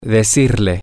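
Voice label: Spanish, to tell say him her you, male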